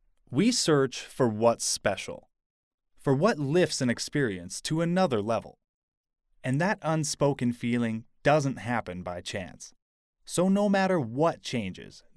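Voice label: Canadian, male; voice over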